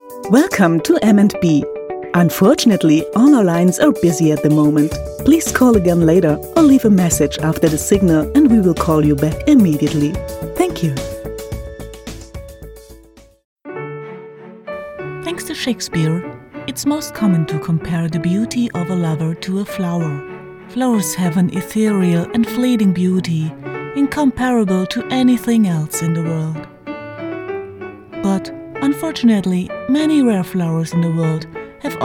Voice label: english female voices german accent